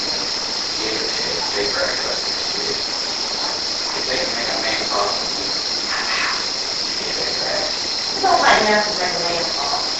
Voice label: Bed Room Unexplained Whisper (I'm out) AMPLIFIED